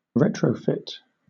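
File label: "retrofit", British English; pronunciations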